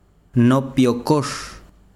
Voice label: besiro pescado, chiquitano